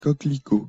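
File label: "coquelicot" French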